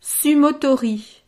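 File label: "sumotori", French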